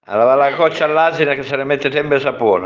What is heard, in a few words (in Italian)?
proverbio abruzzese